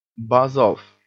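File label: "buzz off", English; Bugger off! Shove off!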